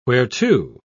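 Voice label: "whereto", British English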